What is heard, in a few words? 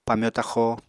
"pamyo taxo", Apatani